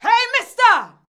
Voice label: "hey nister", shouts; Best Service; Hyper Dance

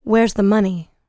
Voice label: L LICKS, FEM SPOKEN, R&B Dance

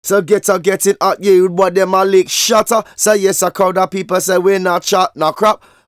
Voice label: Vocals; Jungle Frenzy 1

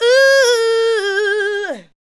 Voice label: ADLIBS 90; Ueberschall; Jam Box

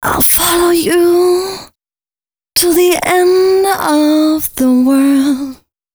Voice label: female c# 135, short hooks, Dance Voice

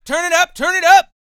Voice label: male spoken; R&B Dance

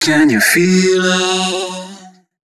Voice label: male d# 130, short hooks, Dance Voice

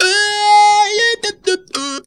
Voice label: "OHYA", funky vocals; USB Soundscan vol.18; AKAI; TB MELODY

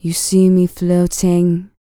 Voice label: FEM SPOKEN; ILIO; Vocal Planet VOL-4; R&B & Dance

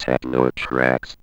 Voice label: ROBO VOX; Masterbits - Tekkno Trax

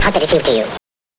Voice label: VOX FEEL; NES DPCM; MIDINES

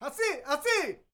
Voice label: MEXICO VOICE, MEXICAN; ILIO; Vocal Planet VOL-5

